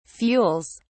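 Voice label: "fuels", British English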